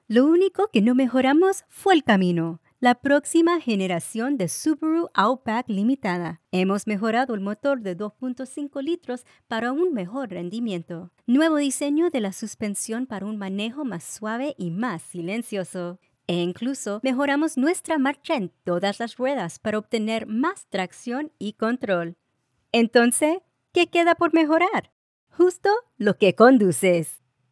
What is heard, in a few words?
Spanish; Subaru Outback